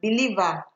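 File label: "believer", Nigerian Pidgin, female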